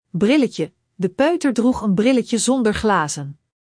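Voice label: "brilletje", Dutch; Spelling Gr5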